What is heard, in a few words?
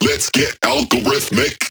Vocal Phrase Male "Algorhythmic"